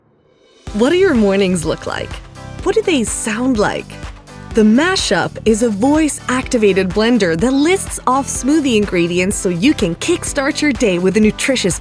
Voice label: English